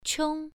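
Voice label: "qiong", tone 1, pronunciation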